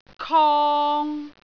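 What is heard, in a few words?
"kong3", Cantonese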